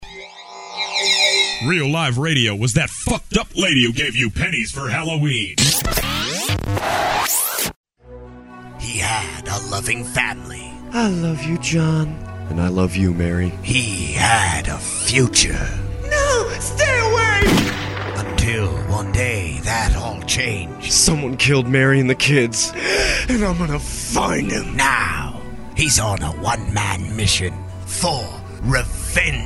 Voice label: Commercial Break trailer; Revengence